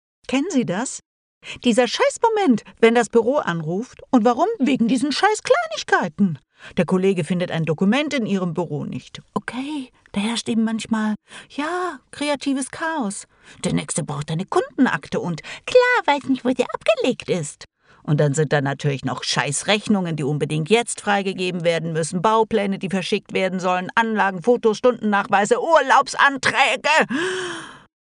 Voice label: werblich

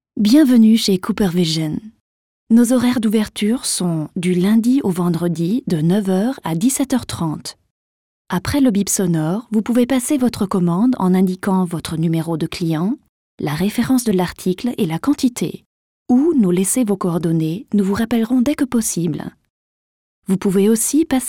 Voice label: telefonanlage

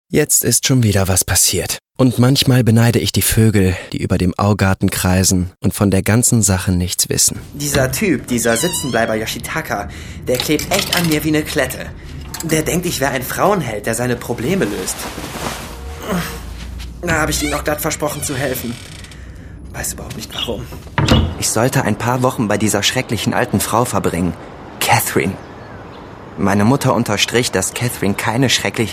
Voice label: hoerspiel hoerbuch

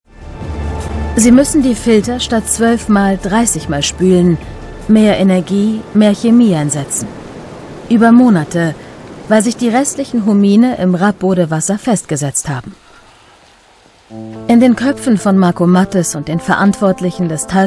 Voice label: doku; der osten - entdecke wo du lebst; toter wald blaues gold. die wasserretter im harz; mdr

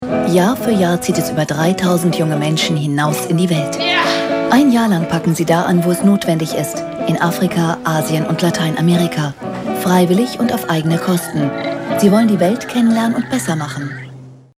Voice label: arte Trailer; die freiwilligen

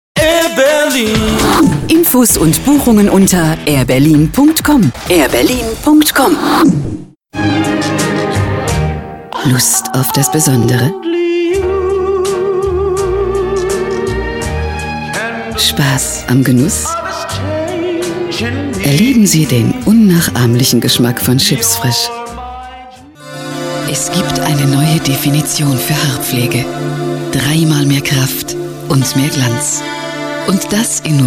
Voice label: werbespot medley

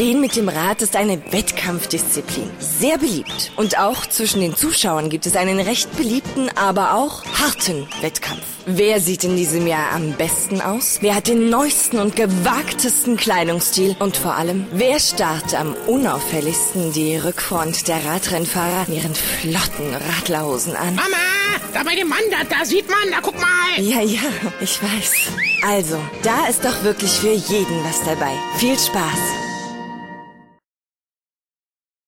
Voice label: werbung 6 tage rennen

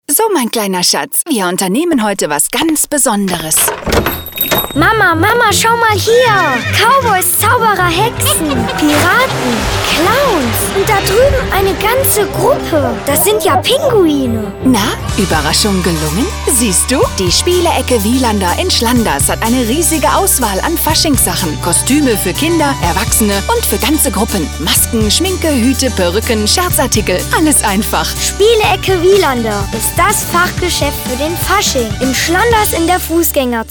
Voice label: spots; rosbacher; tabaluga; wielander

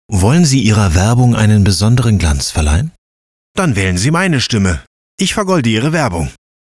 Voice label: deutsch male werbung off

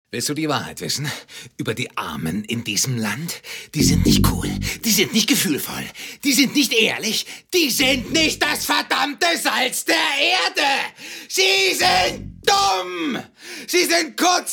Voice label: monolog sehr zornig

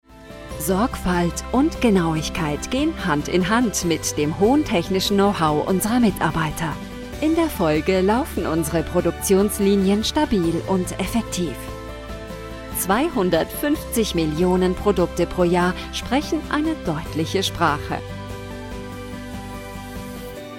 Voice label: imagefilm werksfilm praesent warm; procter und gamble

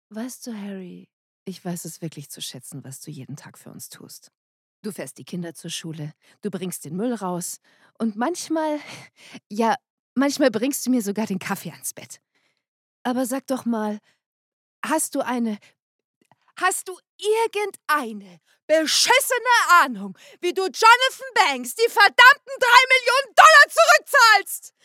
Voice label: emotional vielseitig

